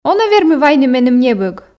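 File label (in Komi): он-ӧ вермы вайны меным небӧг, Komi, female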